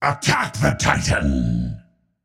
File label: vox attack m; smite; ra; voicepack